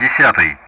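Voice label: Speech Russian; DCS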